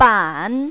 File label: "ban3", mandarin, female